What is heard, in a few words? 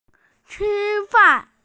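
"eat", Mandarin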